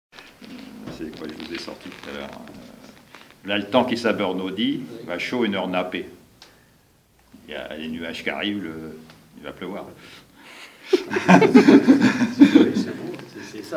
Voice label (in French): Patois; Percheron